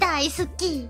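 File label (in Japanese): "だいスキ", Japanese; デレ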